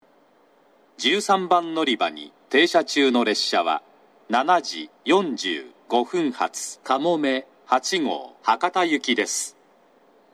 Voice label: 長崎 西九州新幹線ホーム 自動放送, 13番のりば停車中放送; かもめ8号 博多行き）※停車駅が鳥栖まで

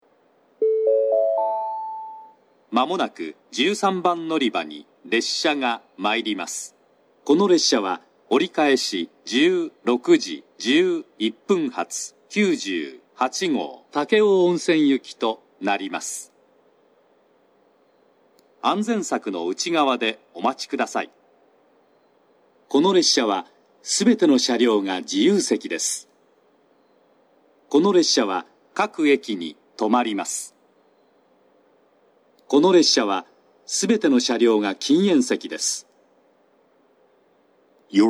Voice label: 長崎 西九州新幹線ホーム 自動放送, 13番のりば接近予告放送, 折り返し放送

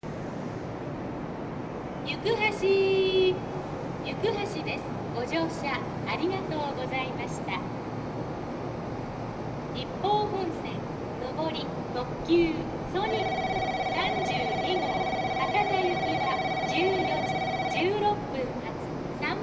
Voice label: 到着放送; 行橋

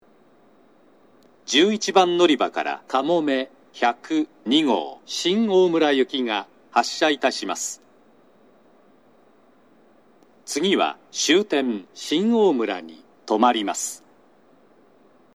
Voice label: 11番のりば発車放送, 西九州新幹線ホーム 自動放送; 11番のりば発車放送（かもめ102号 新大村行き）; 九州新幹線同様、この放送が鳴っている時には、ホームドアは閉まっています（もしくは閉扉中）。

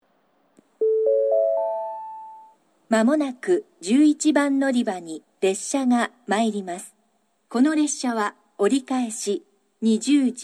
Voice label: 新水俣, 11番のりば接近予告放送; 折り返し・つばめ325号 鹿児島中央行き; 入線の概ね4〜5分前に流れます。; 熊本地震による、新水俣折り返しの暫定ダイヤ時に収録; shin minamata

